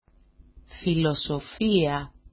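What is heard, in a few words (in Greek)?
"filosofia", Greek; φιλoσoφία; philosophy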